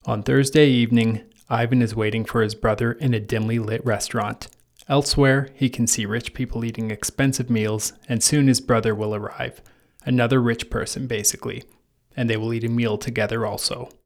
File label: bathroom vox; Raw voice reading in bathroom – lots of echo; Breaking the Echo Curse at Home